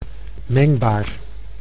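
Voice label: "mengbaar", Dutch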